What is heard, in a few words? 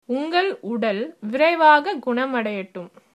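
get well soon, Tamil, female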